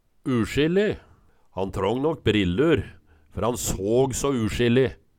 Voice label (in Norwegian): usjilli, Numedalsmål